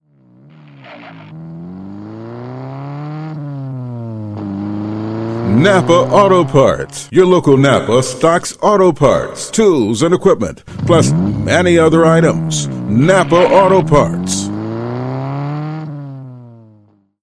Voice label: Commercial Spot, Auto Parts; Americas Napa